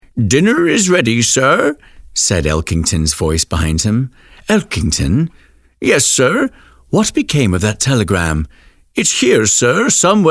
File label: Audiobook Sample; Lost Clue